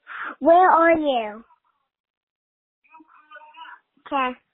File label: Voicemail